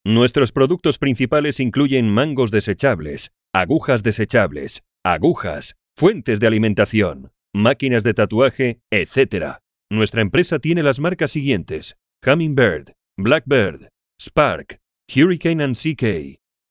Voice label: SPANISH